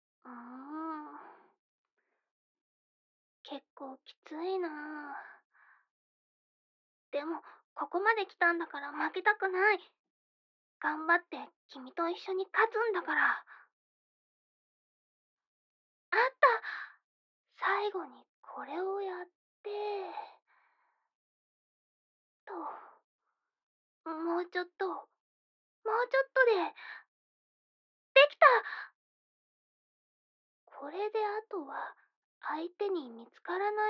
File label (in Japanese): VoiceOnly, ASMR; 突然の告白：「セックスって興味ある？」; SEなし; KU100; 幼なじみ巨乳処女JKに絞られまくる ～あまラブ初体験で彼氏SEXにドハまりする彼女～